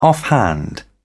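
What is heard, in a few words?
"offhand", British English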